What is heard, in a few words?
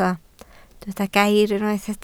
"gair", Welsh